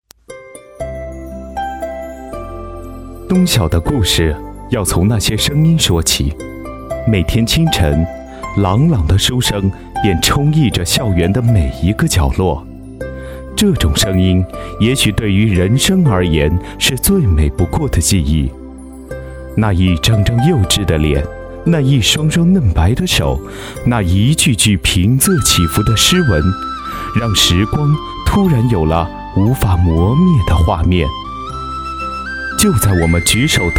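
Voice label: chinese mandarin male narration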